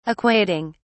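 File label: "equating", British English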